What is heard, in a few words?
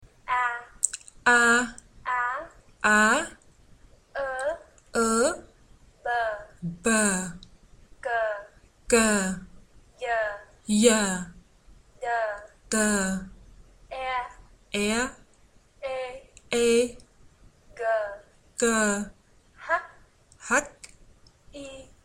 vietnamese alphabet